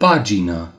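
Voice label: "page", Romanian; gcompris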